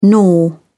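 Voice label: "gnaw", British English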